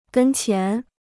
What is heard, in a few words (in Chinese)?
"跟前", Chinese